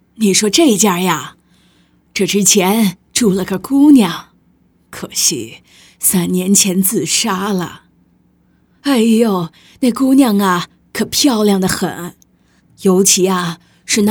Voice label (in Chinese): 女 模仿 角色 妇女; 老人案例